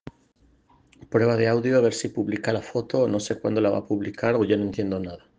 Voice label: Voice message; cartografia critica